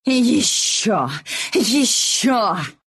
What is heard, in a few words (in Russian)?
levelup ru; Dota 2